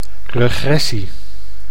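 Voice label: "regressie", Dutch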